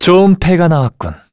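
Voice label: Vox Skill, Korean; Esker; kingsraid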